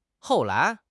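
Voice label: "hou4 lai2", Mandarin Chinese; HSK3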